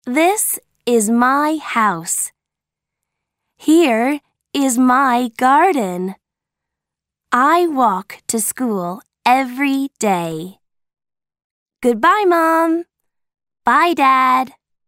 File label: Audio American English; Where I Live